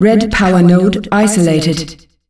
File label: Red powernode isolated, female announcer; Unreal Tournament; ut2004